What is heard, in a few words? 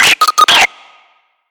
Armed Guard Shout Warning